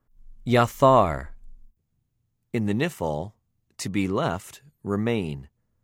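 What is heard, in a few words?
"יָתַר", Hebrew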